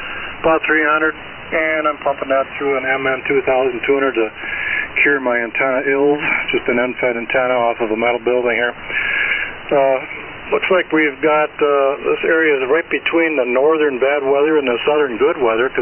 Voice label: Swan-500 D-104 Mic; DeForest, Wi.